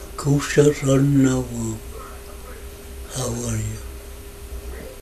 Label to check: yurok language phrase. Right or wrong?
Right